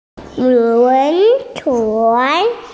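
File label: "steamship", Mandarin Chinese, female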